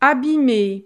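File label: "abime", French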